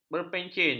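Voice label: "berpencen", Malay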